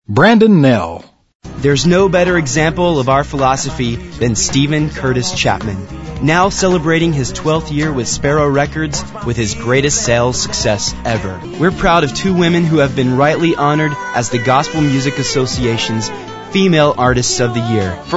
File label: male; Spotland Productions